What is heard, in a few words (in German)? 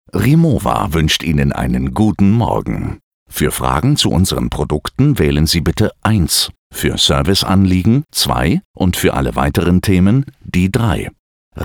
Telefonschleife; RIMOWA